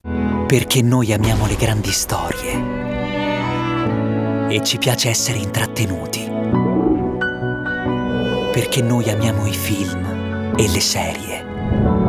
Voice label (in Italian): Promo voce Commercial; voiceover